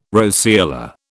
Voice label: "roseola", British

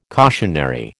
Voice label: "cautionary", American

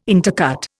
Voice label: "intercut", Indian

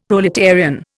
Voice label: "proletarian", Indian; Dictionary

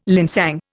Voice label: "linsang", Indian

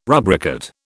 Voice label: "rubricate", British

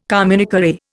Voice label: "communiqué", Indian

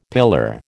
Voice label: "pillar", American

